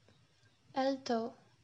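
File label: "alto", UKR, female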